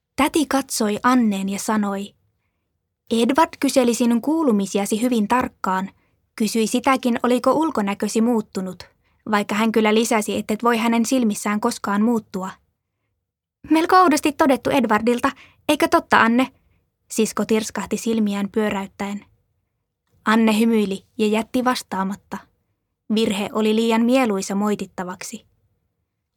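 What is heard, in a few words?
Finnish, Audiobook, female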